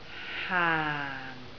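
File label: "haang4", Cantonese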